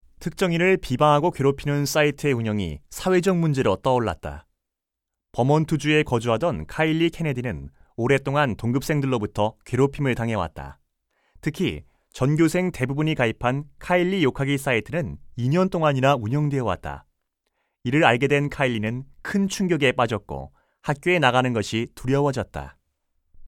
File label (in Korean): 내레이션; 도덕; 사이버 윤리와 예절